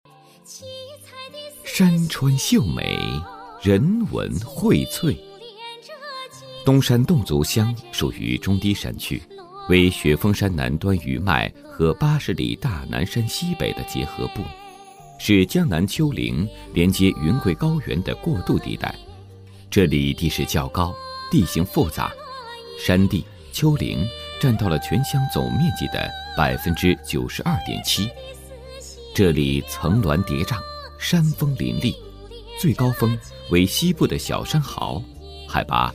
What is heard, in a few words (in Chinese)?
国语男声配音, 宣传片; 魅力东山; 全能录音